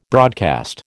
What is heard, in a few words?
"broadcast", American